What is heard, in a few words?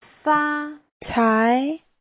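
"fācái", Chinese; 发财; phát tài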